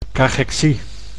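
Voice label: "cachexie", Dutch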